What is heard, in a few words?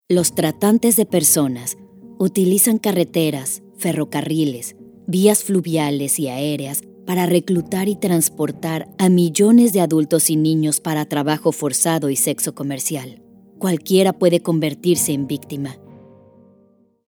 Spanish Voice Over, Serious Topics - E-learning Narration; Spanish Voice Over for any type of eLearning project.